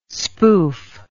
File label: "spoof", English